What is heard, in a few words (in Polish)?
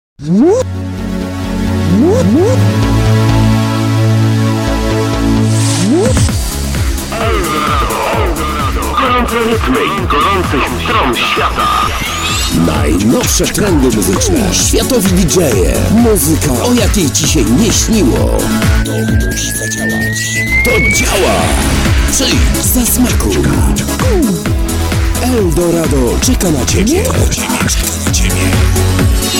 głos do reklamy